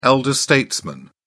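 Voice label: "elder statesman", British English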